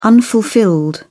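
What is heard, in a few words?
"unfulfilled", English, uk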